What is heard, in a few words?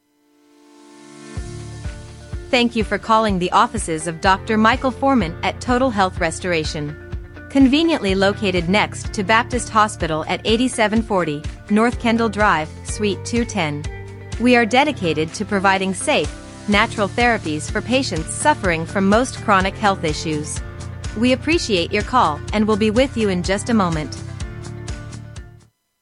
English female